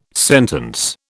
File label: "sentence", British